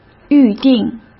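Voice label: "预订", Mandarin Chinese; chinese-flashcards; hsk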